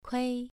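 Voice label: "kui", Mandarin Chinese, second tone, pronunciation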